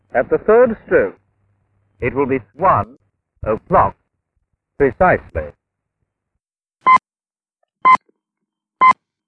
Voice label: audio UK AUS; time of day announcement device; TIM 2015; self contained time of day announcement device